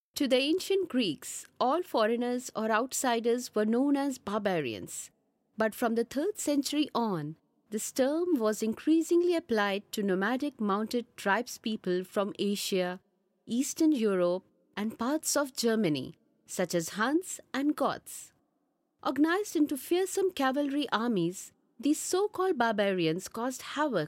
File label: English Narration